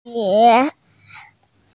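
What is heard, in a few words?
"er3qie3", Mandarin Chinese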